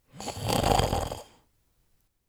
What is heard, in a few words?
sleepy, Mage Voice; Mage Voice Pack